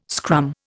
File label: "scrum", Indian